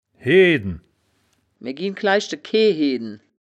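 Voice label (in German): "heeden", wittlicher woerterbuch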